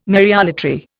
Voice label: "Mariolatry", Indian

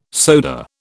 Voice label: "soda", British

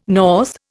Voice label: "north", Indian

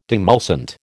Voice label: "demulcent", American

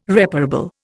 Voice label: "reparable", Indian

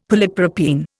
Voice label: "polypropene", Indian; Dictionary

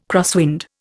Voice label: "crosswind", Indian

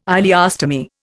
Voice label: "ileostomy", Indian

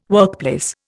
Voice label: "workplace", Indian

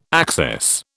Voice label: "access", British

